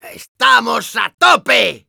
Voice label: positive vocalization, es; Demoman

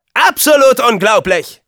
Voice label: jeers, de; Scout

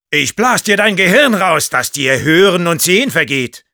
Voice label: Sniper taunts, de

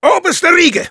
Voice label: positive vocalization, de

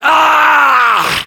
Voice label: jeers, fr

